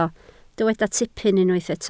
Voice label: "tipyn", Welsh